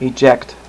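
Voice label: "eject", male voice; Web Clip Empire 150,000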